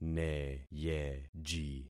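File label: "neyeji", concatenated syllables; Affixing experiment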